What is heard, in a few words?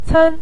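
"cen" Mandarin Chinese, neutral tone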